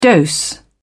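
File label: "dose", British English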